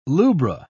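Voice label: "lubra", British English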